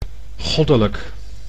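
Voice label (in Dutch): "goddelijk", Dutch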